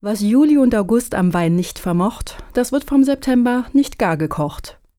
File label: german folk saying september july may bad wine